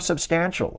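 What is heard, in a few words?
"substantial" English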